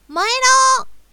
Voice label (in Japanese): "燃えろー", 強攻撃